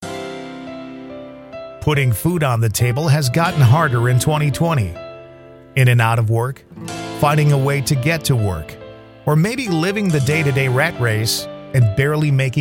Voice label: radio ad; getting ahead radio plus